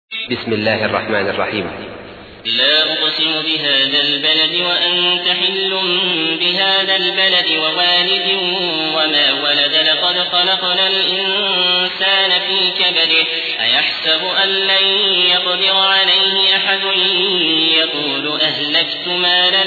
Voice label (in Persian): ترتیل; عبدالعزیز الأحمد; سوره بلد